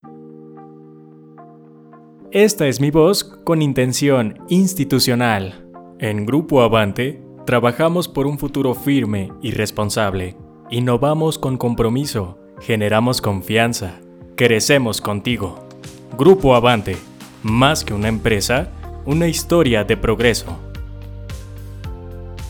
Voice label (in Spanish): Institucional Musicalizado